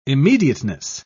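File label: "immediateness", British English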